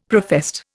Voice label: "professed", Indian; Dictionary